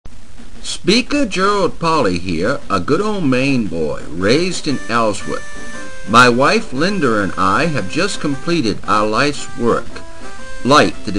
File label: Book Ad